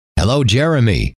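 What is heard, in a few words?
hello, male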